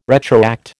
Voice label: "retroact", American